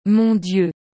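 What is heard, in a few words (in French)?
"Mon dieu", French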